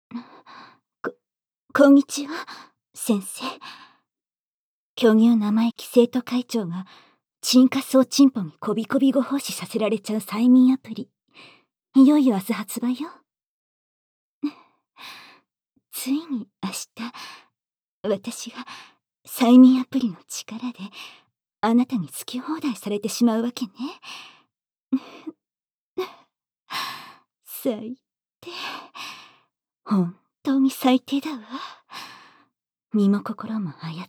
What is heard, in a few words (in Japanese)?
発売前日の販促音声